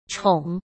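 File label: "宠", Mandarin Chinese; chinese-flashcards; hsk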